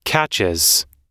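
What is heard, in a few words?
"catches", American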